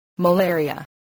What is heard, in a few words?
"malaria", spelling voice